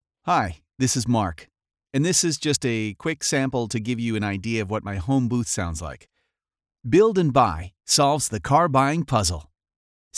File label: HOME STUDIO SAMPLE